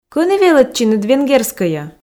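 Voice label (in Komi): кӧні велӧдчинныд венгерскӧйӧ, Komi, female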